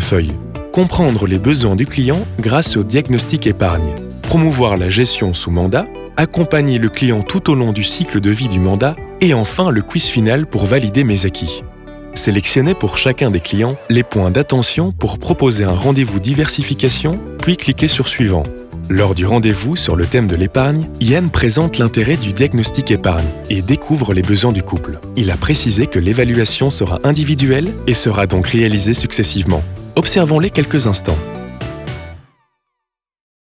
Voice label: E learning corporate assurance infocommercial ton serieux naturel

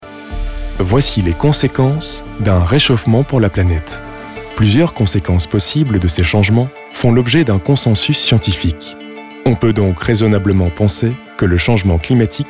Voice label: Informatif reportage rechauffement climatique neutre

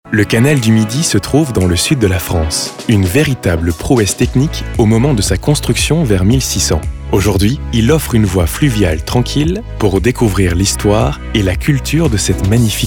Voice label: Infocommercial corporate voyage ton souriant

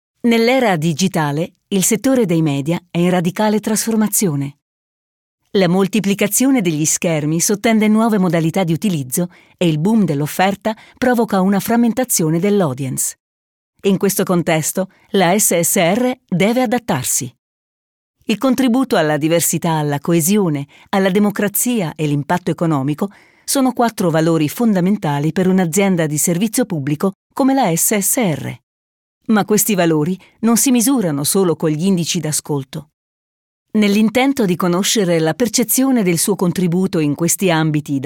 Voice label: Voix OFF; SSR

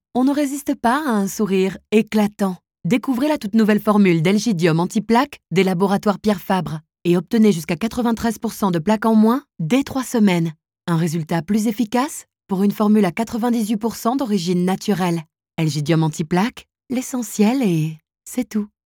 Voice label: Voix pub beaute; PUB WEB; Pierre Fabre

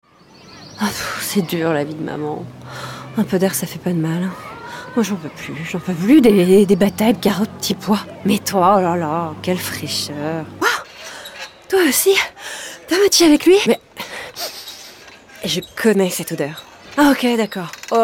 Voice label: DÉMO PUBS JOUÉES

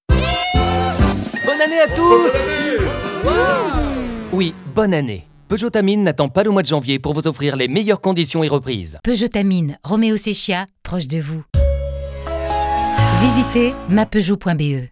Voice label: Spot Radio; Peugeot Tamine

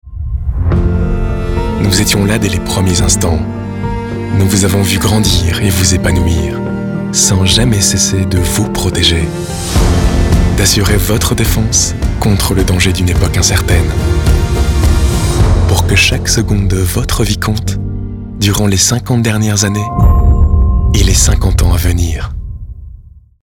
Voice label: PUB STORYTELLING ton bienveillant; APEX

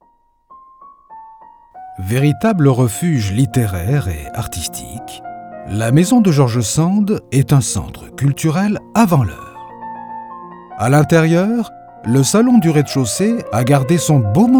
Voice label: Audioguide; Maison de George Sand

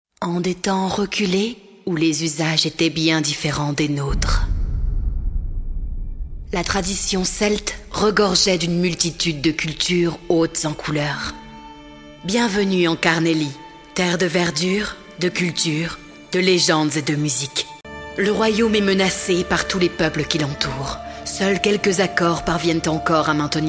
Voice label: JEUX VIDEO Voix mystique contee

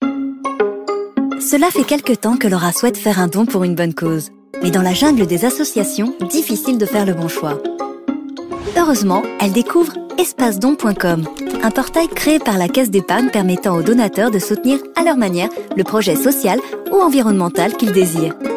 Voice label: Instit Corporate Entreprise

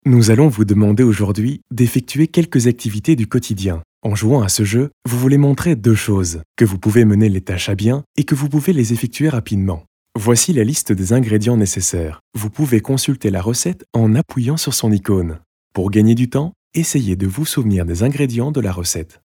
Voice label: Jeu interactif Educatif ton pedagogique legerement souriant

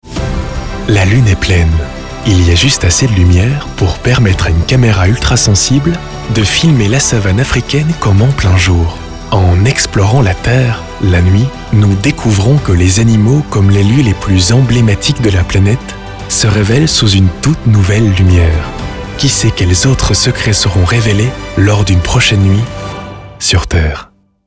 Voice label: Bande annonce documentaire animalier narration

